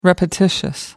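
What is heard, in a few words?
"repetitious", British English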